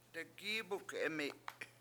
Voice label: "degIIbuk7Emi7", Washo; cooking basket